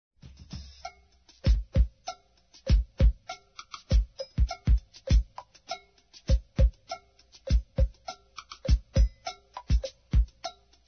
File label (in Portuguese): Aniversário Otimismo F; Aniversários; Aniversários diversos